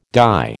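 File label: "dye", American English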